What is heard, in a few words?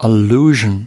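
"allusion", US English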